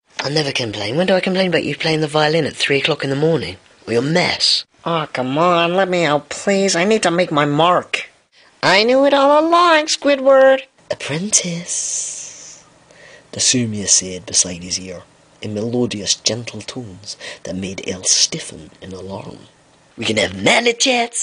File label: fun mix of voices